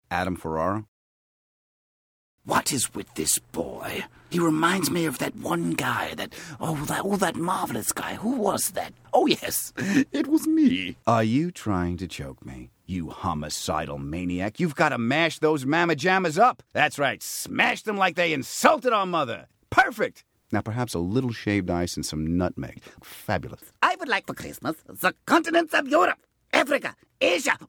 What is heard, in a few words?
animation; Innovative LA